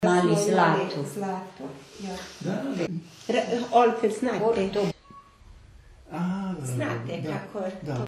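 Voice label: Long and falling a: zlâto 'gold', znâte 'you know', dialect of Petrovo Selo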